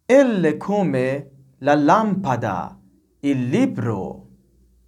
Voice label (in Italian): italian